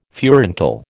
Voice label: "furuncle", American